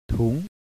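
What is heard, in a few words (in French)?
"soong", ton montant, thailandaise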